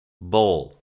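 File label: "bowl", English; ingles adulto; vocabulario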